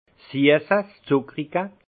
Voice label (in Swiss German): "zuckerige", alsace; le sucré; vin